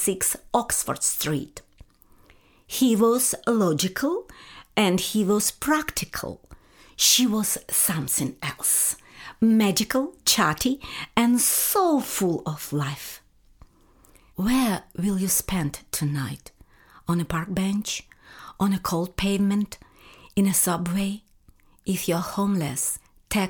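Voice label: Russian English, female, narration, accent of russia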